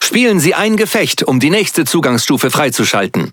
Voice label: Speech Access Level Unlock, DE; World Of Warships

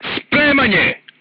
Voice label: voice message reloading, crew tanks serbian

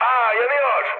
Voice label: on fire, mood med, speech tur; War Thunder

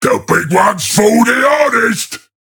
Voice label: Ork Destroy Enemy Battleship; Warhammer Ork; Warhammer 40000

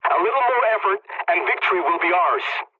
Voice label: pilot tickets lead continue ally, english; War Thunder

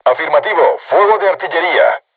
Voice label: voice message art shoot, crew tanks spanish

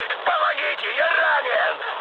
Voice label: damaged pilot, mood high, speech ru; War Thunder

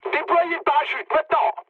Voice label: bailout mood med, speech fr; War Thunder

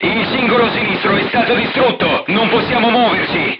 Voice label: left track knocked down, crew tanks italian; War Thunder

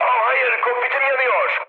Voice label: on fire, mood high, speech tur; War Thunder

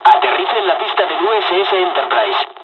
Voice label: landing, dialogs spanish; War Thunder

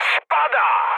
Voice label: aircraft destroyed mood med, speech pol; War Thunder

